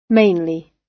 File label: "mainly", gb